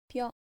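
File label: "pyo", Japanese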